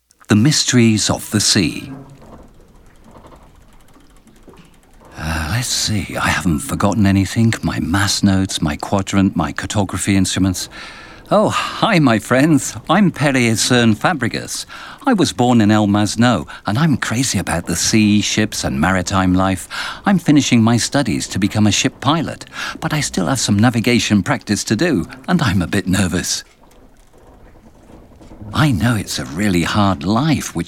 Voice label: audioguia english